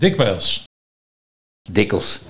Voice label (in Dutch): "Dukkels", Nieuw lekkerlands dialect; dikwijls